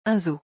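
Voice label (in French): "Un zoo", French; vocabulaire; le lexique des vacances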